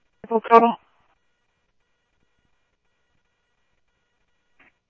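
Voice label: Light Rail Security Light Rail, scanner audio trunk; ThompsonPeakHigh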